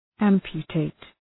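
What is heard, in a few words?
"amputate", English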